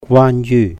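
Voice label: "Gwaan Yu", Cantonese; regarding, about; 關於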